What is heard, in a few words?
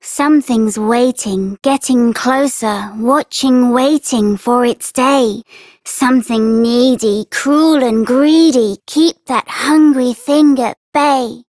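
Child Rhymes Envy Child Female; Duviri; Childrens Rhymes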